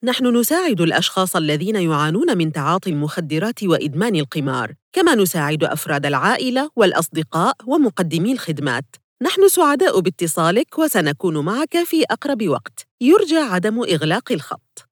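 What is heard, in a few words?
Arabic telephony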